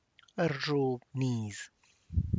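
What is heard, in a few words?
tlacochahuaya, Zapotec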